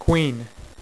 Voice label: "queen", Dealer's Speech; PowerPOKER Demo

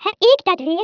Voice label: "Oh dear", Speech, Dutch; International; 11khz

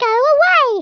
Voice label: "go away", English

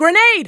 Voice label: grenade, F Voice English

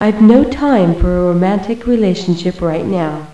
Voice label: Men

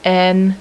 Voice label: "n" female, alphabet; Web Clip Empire 150,000

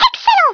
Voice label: "excellent", French

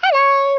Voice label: "hello", English speech

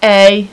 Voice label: "a" female, alphabet; Web Clip Empire 150,000

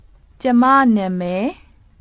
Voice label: MY NAME IS, BURMESE

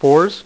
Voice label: "FOURS", dealer's speech; PowerPOKER Demo

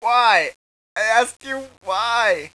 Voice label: very sad; Spades Deluxe; Hal; something happened to player

